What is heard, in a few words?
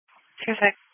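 Police Patrol Dispatch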